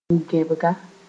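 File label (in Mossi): "bʋd-gẽbga", Mooré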